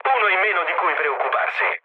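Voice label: Italian player killed enemy mood low, speech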